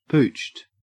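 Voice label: "pooched", Australian English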